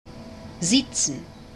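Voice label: "siezen", German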